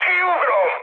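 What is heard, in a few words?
Hungarian bailout mood high, speech; War Thunder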